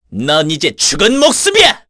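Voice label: Vox Skill, Korean; Ezekiel; kingsraid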